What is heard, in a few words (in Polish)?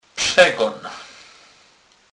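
"przegon", Kurpie dialect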